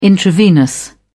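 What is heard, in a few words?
"intravenous", English, uk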